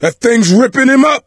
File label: hunter pounced, voice; Left 4 Dead 2; coach